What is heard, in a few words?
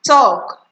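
"talk", Nigerian Pidgin, female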